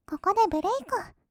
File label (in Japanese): 「ここでブレイク」, kawaii voice; 1200 kawaii voice!!; 色々なワンショット